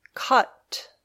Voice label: "cut", English